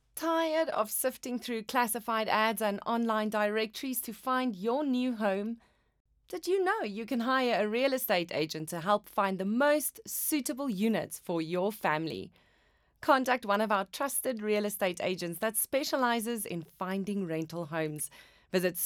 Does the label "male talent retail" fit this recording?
No